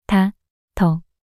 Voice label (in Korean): 다더 낱소리 여자